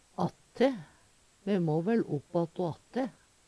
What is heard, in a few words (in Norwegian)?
"att`te", Numedalsmål; fortsetja, på`n att; Uttrykk; Me må væL opp att o att`te.